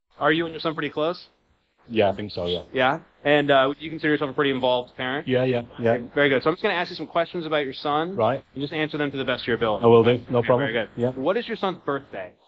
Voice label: speech enhancement after